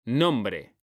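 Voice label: "nombre", Spanish; mekanta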